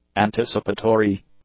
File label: "anticipatory", English; adjectives